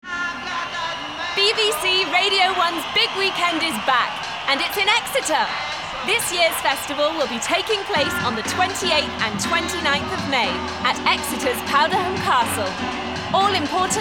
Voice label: Commercial; Radio 1